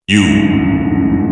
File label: "you" reverb